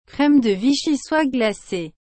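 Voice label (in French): "Crème de vichyssoise glacée", French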